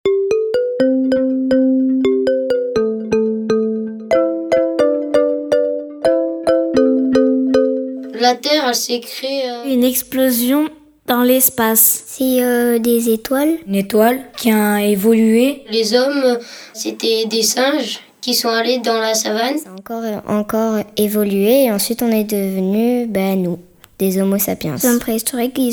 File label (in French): Voix-off enfants